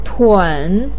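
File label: "tun", Mandarin pinyin, third tone; 氽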